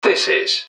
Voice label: this, english man, subway announcers; MetrostroiAddon

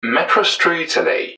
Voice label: "metrostroiteley", english man; subway announcers; loopline

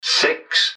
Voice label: "six", english man; subway announcers; MetrostroiAddon

